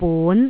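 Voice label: "bun2", Jyutping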